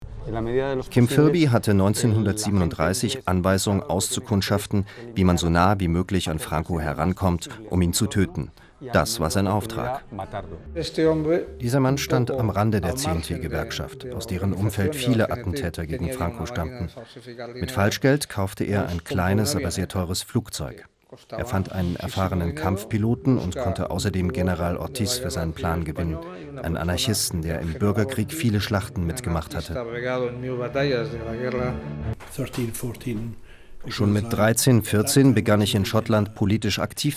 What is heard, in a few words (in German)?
VoiceOver; Attentate auf Franco